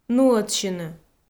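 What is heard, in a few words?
"нуӧдсьыны", Komi, female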